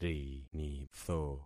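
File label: "rinitho", concatenated syllables; Affixing experiment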